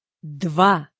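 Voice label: "dva", Russian, female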